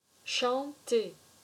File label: "Chanter", french